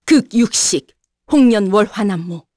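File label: Vox Skill, Korean; heroes; Seria; kings raid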